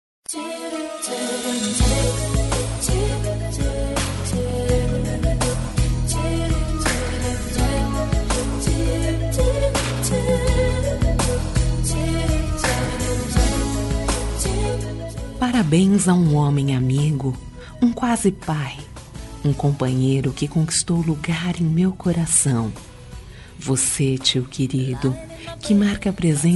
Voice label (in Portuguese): tio fem